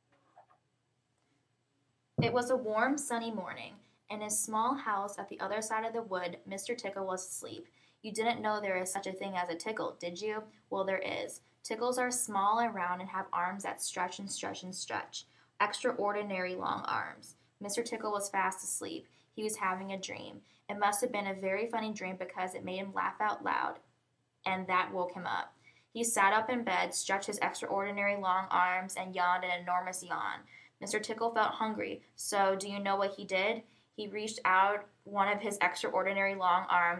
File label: female; lake forest il male